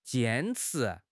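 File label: "jian1 chi2", Mandarin Chinese; HSK; HSK4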